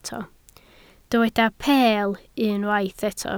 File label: "peel", Welsh